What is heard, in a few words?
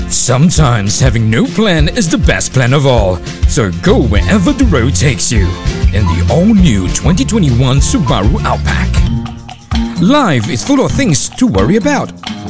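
Car voice over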